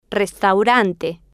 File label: "restaurante", spanish